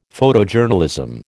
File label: "Photojournalism", American